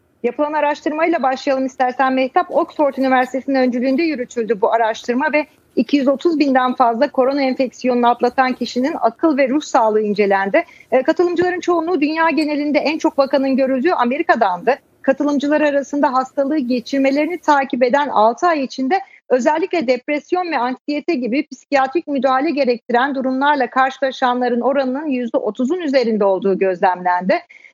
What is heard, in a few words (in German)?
tuerkisch, Sprachen